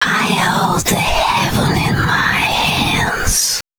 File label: male, voice